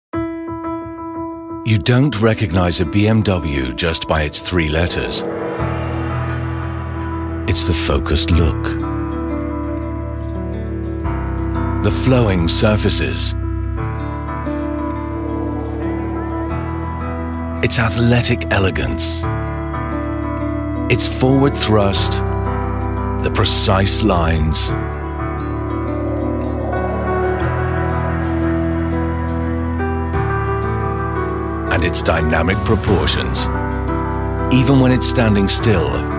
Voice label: Eng UK Werbung Sanft

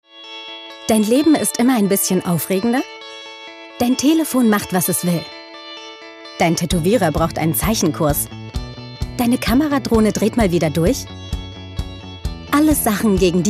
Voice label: Freundlich

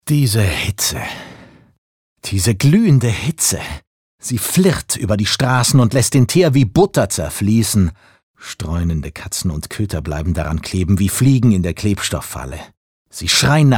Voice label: Schauspiel Neutral

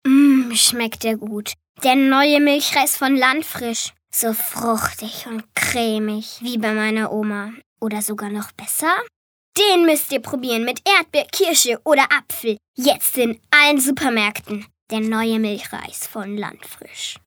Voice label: W Landfrisch Freundlich

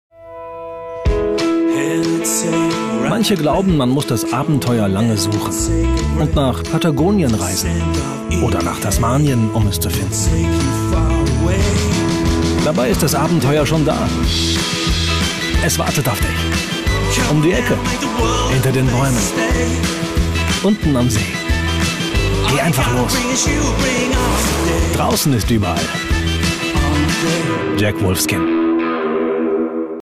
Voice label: Werbung, Lebendig

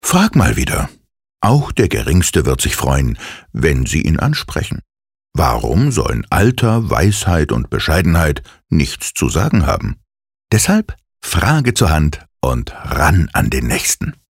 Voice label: Werbung Freundlich

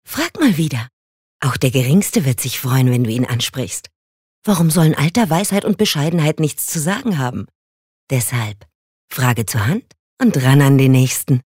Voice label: Werbung Sanft

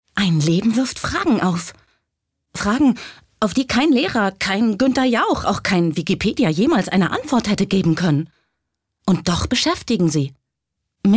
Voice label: Schauspiel Lebendig

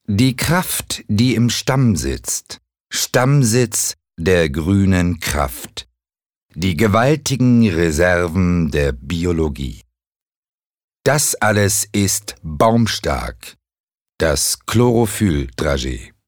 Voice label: Werbung Kraftvoll

